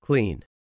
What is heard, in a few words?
"clean", English; 3000 words